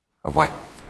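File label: Voice Acting Spanish Female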